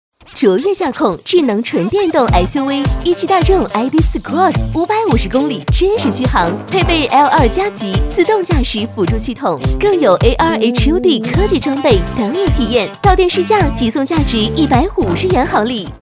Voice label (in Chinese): 广告 科技感; 大众汽车ID4